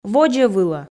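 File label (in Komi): "водзӧ вылӧ", Komi, female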